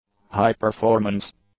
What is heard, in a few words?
"high-performance", English; adjectives